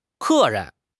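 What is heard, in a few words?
"ke4 ren2", Mandarin Chinese; HSK3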